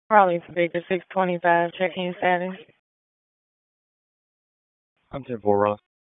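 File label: Police District Dispatch, p25; Raleigh Police Southwest District Disp; wake p25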